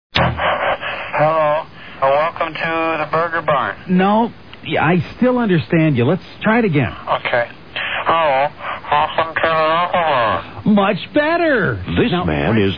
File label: Commercials intercom; Professional Intercom Announcer